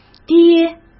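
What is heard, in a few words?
"跌", Mandarin Chinese; chinese flashcards; hsk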